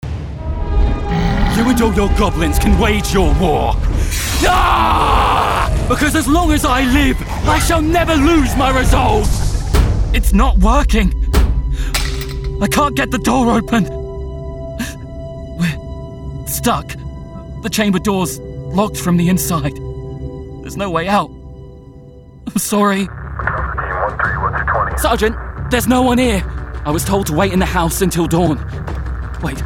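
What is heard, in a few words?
Character British; UK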